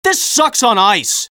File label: jeers